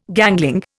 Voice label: "gangling", Indian